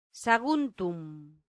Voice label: "saguntum", latin; santillana

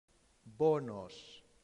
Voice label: "bonos", latin; santillana

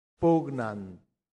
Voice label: "pugnant", latin; santillana

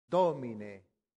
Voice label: "domine", latin; santillana

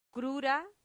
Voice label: "crura", latin; santillana

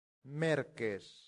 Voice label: "merces", latin; santillana

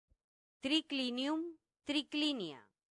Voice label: "triclinium triclinia", latin; santillana

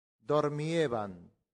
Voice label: "dormiebant", Latin; santillana

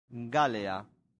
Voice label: "galea", Latin; santillana

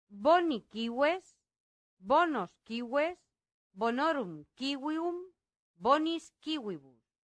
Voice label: "boni cives", Latin; santillana

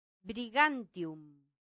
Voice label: "brigantium", latin; santillana

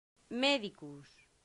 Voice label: "medicus", latin; santillana

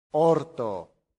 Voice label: "horto", Latin; santillana

